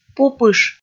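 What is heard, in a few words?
"пупыш", Komi, female; kpv audio dict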